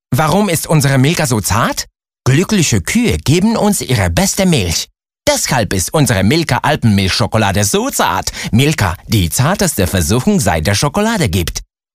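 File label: commercial German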